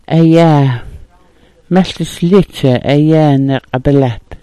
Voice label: "ayaa", smalgyax